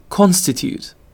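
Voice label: "constitute", British English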